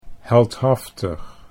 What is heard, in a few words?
"heldhaftig", Dutch